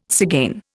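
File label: "tzigane", Indian English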